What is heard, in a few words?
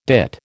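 "dit", American English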